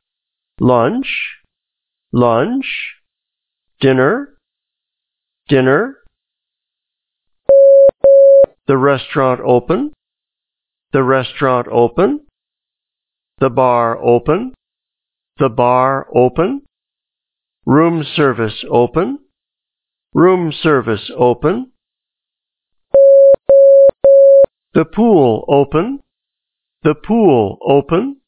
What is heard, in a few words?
when male